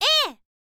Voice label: "a", girl

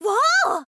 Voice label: "wow", girl

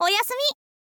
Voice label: "oyasumi", girl

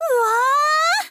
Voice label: "uwaa", girl

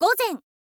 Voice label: "gozen", info girl voice

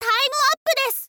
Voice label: "time up desu", girl; voice; info girl

